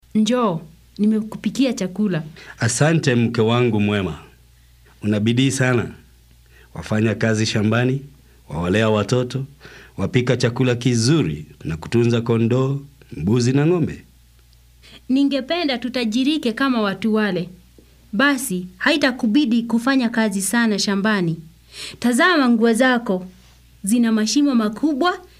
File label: words of life swahili kenya; swh swahili kenya audio bible; words of life 2